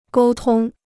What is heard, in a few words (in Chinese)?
"沟通", Chinese